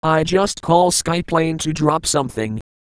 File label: incoming, speechbanks, Microsoft Mike